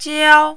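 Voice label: "jiao", first tone, Mandarin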